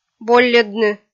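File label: "болльӧдны", Komi-Zyrian, female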